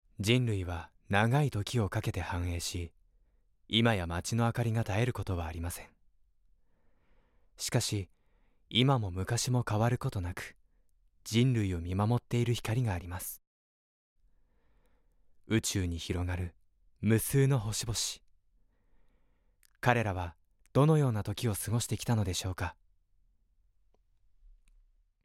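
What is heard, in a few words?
voice sample, narration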